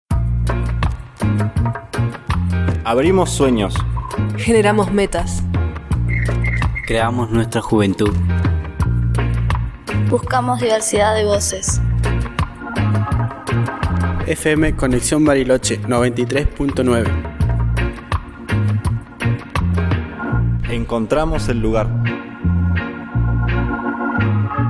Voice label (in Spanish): spot; conexion bariloche